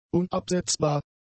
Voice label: "unabsetzbar", German; adjectives